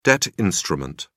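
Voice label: "debt instrument", British English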